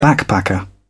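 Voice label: "backpacker", British English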